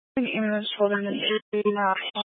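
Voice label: Fire Dispatch, scanner audio recording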